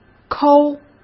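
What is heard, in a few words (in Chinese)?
"抠", Mandarin Chinese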